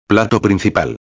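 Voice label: "plato principal", Spanish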